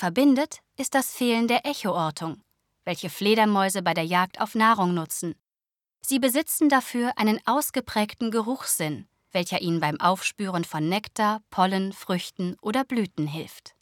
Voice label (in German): Doku Flughund